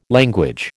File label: "language", American